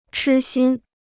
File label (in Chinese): "痴心", Chinese